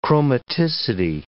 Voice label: "chromaticity", English